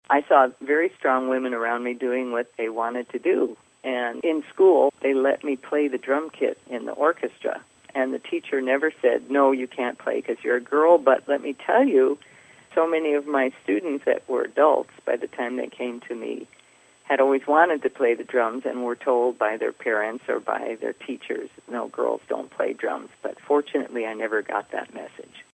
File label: bb female